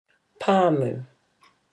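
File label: "pamu", Māori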